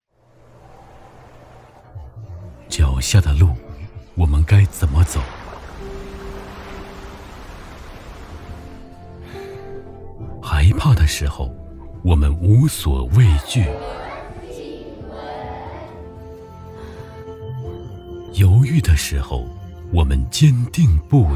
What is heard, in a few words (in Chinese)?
公益宣传长征路